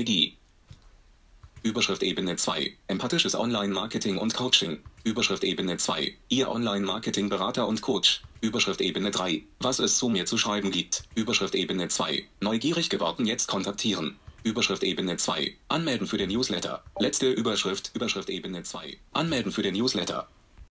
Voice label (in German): Audio Beispiel Navigation durch Webseite VoiceOver